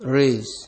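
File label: "raise", Nigerian Pidgin, male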